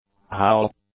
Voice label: "howl", English; nouns